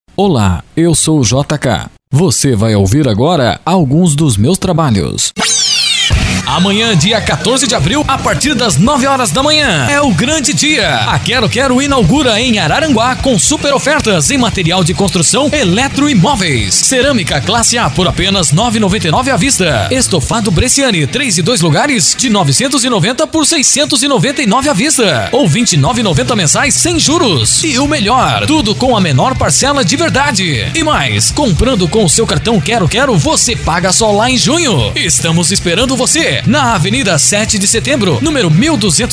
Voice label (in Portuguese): curriculum de voz